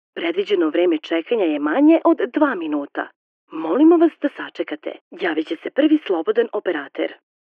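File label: Serbian, female, ivr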